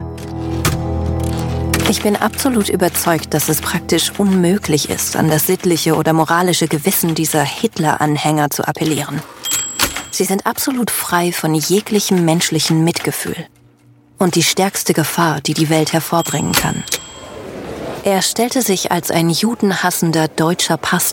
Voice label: german female character